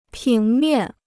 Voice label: "平面", Chinese